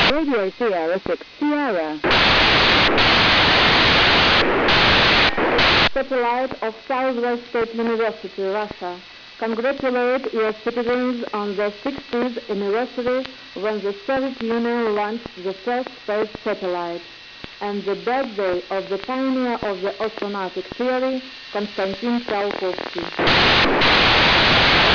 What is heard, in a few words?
english